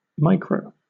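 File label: "micro", British English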